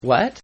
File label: "What", exclamation; Voice; Exclamations